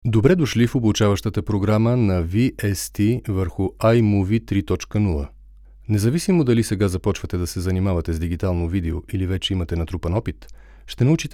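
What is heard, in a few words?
bulgarian male e-learning; voice demos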